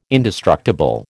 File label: "indestructible", American